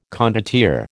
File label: "condottiere", American